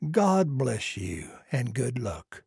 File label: trader male like quest accepted